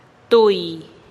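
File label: "tui", uno, Teotitlán del Valle Zapotec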